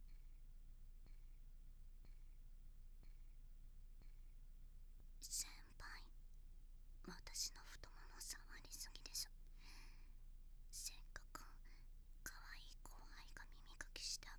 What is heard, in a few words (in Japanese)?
右, ASMR